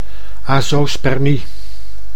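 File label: "azoospermie", Dutch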